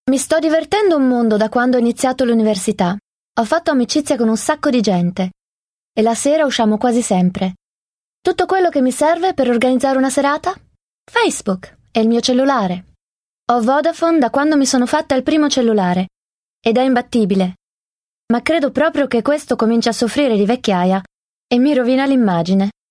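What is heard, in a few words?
Italian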